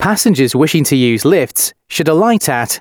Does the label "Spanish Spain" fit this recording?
No